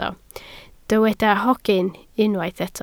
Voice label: "hogyn", Welsh